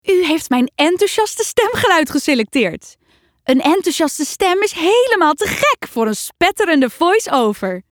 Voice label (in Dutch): Enthousiast